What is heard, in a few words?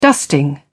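"dusting", British English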